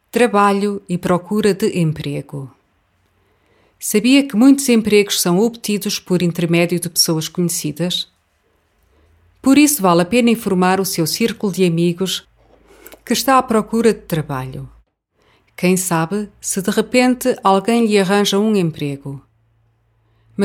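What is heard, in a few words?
Portuguese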